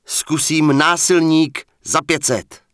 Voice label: Human Male Standard NPC Farewell; wowpreklad; czwow